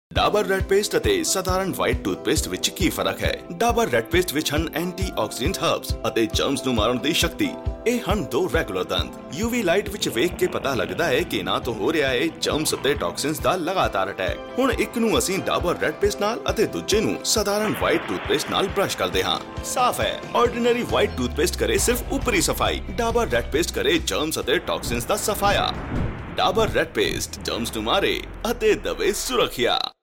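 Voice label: PUNJABI male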